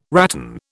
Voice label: "rattan", British

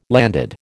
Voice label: "landed", American

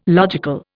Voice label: "logical", Indian

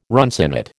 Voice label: "runcinate", American

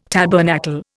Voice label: "tabernacle", Indian

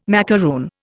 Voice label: "macaroon", Indian

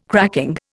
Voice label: "cracking", Indian

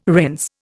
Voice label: "rinse", Indian

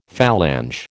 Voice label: "phalange", American

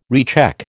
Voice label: "recheck", American

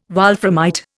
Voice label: "wolframite", Indian

